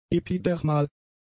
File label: "epidermal", German; adjectives